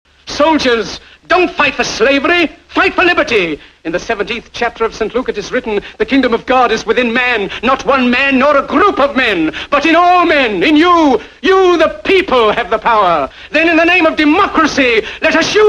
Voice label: dictator tone